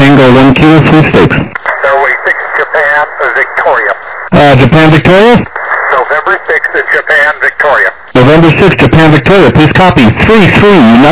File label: USB 21MHz